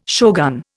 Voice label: "shogun", Indian